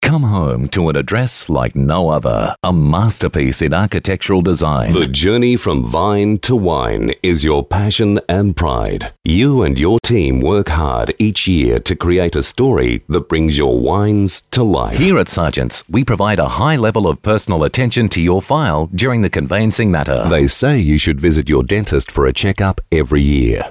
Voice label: Corporate